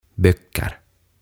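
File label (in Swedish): "böcker", Swedish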